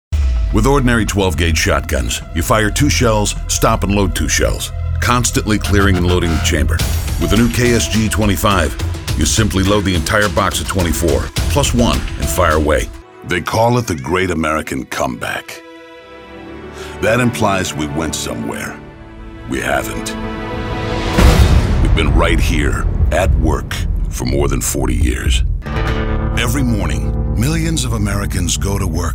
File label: Narration